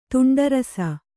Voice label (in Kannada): "ತುಂಡರಿಸು", Kannada